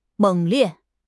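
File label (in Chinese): "猛烈", Chinese; HSK6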